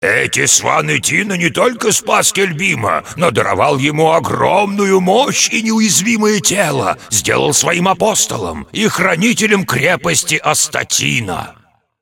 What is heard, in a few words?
cinematic voice, ru; lineage2